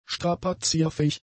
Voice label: "strapazierfähig", German; adjectives